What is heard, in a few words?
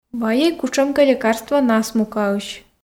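"вайӧй кутшӧмкӧ лекарство насмукаысь", Komi, female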